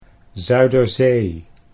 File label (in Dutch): "Zuiderzee", Dutch